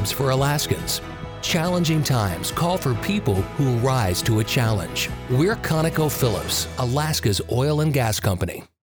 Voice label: rigs radio; kuparuk rigs